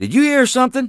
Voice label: detect telltale, English Voice